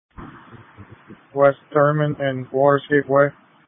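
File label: Police Patrol (Dispatch)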